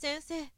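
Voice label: "sensei", Japanese, woman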